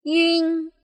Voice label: "yun", pinyin